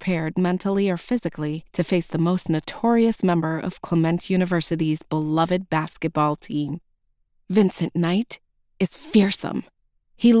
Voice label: Romance MF 1st Person, audiobooks